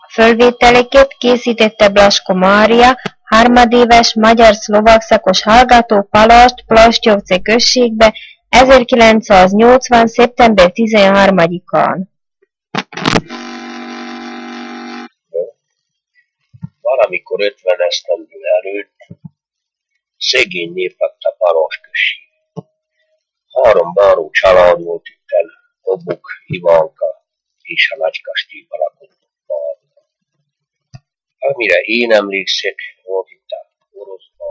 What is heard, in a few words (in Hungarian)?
nyelvjaras gyujtesek